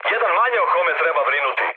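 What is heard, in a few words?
Serbian player killed enemy mood med, speech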